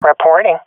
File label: reporting in, swat fem; vo; Counter Strike Model Pack; CS:GO